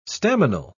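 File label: "staminal", British English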